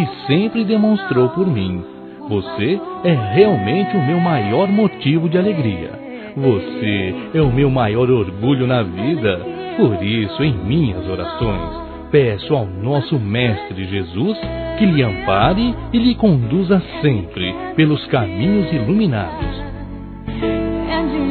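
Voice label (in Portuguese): AGRADECIMENTO AO FILHO, masculino; Telemensagens; Convites e Agradecimentos; Telemensagens 2017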